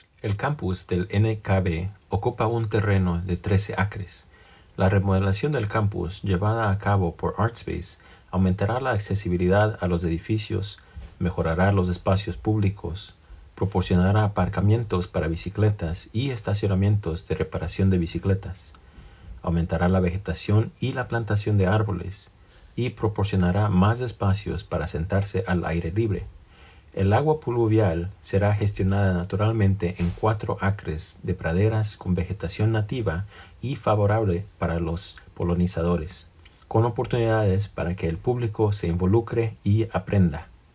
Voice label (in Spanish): Español; nkb site